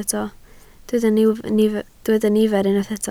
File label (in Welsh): "nifer", Welsh